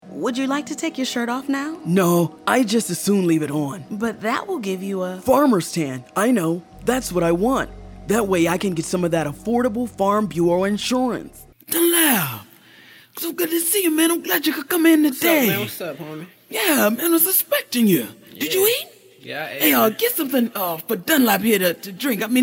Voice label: Character VO

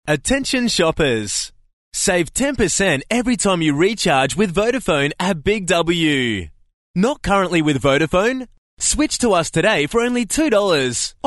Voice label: instore sample

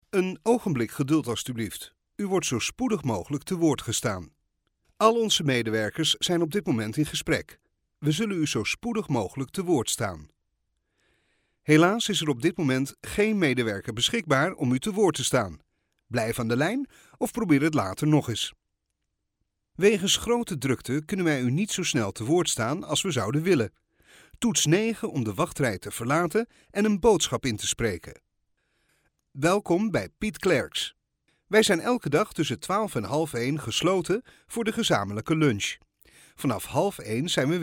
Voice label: Dutch IVR

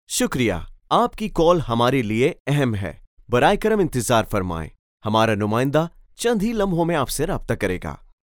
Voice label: Urdu IVR Phone System

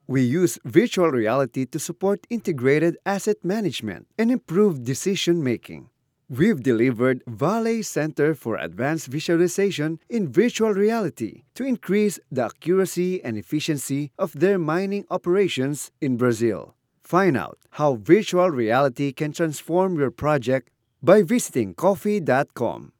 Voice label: English Tagalog Accent

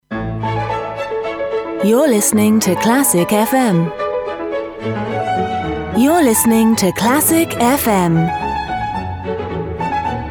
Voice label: Trailer; ClassicFM

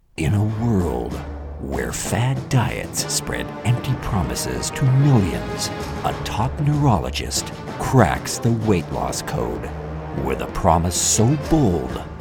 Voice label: Movie Trailer samples no slate

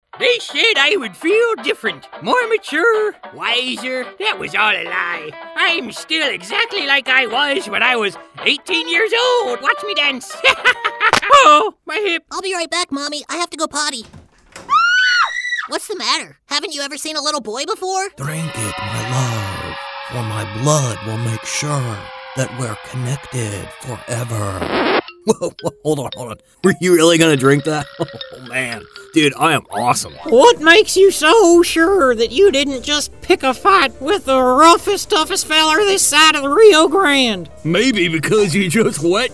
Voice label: Character

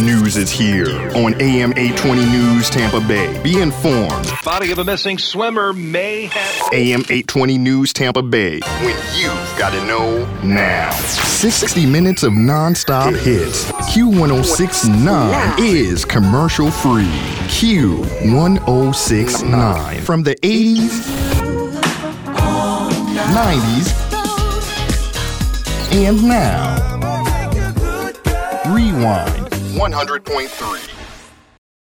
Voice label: Radio Station Imaging

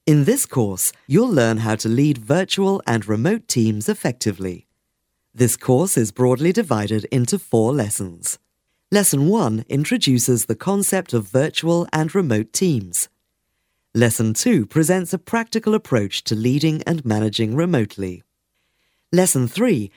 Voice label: training VO

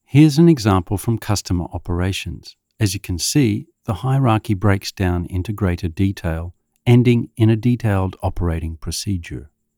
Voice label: elearning; Vodaphone

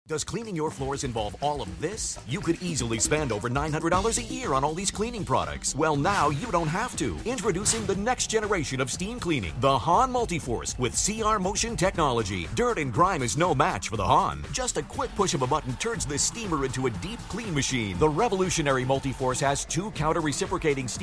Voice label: Informercial

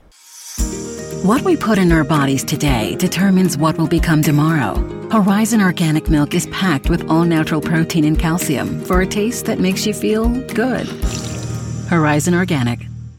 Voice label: Corporate, Direct Warm Confident, Female Voice; HORIZON ORGANIC

